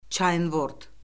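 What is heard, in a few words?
"çaynvord", Azerbaijani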